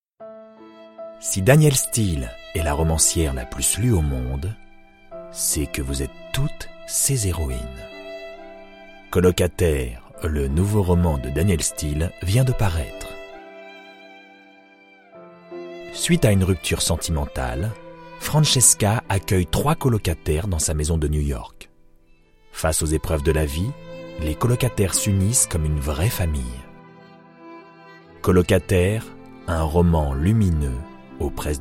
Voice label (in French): pub tres grave sensuel; voix off masculine; voix off masculine naturelle, posée, souriante